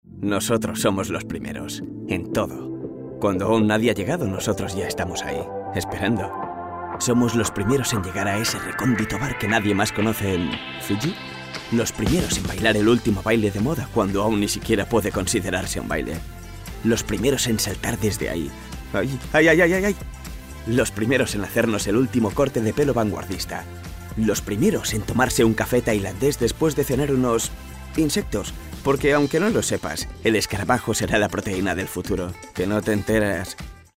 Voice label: male spanish coffee comercial; ideasonora